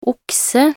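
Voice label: "okse", Norwegian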